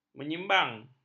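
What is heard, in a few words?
"menyimpang", Malay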